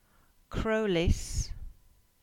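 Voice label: "Crowlas" Cornish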